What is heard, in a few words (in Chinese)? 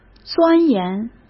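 "钻研", Mandarin Chinese; chinese flashcards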